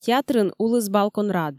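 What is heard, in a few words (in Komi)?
"театрын улыс балкон рад", Komi, female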